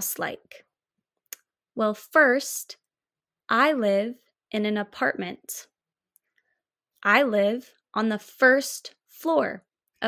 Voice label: conversation with accent from the USA; “What is your house like?”; I live in, I live on, House spaces; Elllo